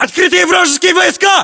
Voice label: threat infantry exposed, battlechatter ru; localized english